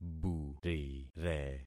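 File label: "burire", concatenated syllables; Affixing experiment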